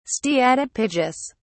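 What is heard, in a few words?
"steatopygous", British English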